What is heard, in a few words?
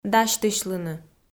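"дасьтысьлыны", Komi, female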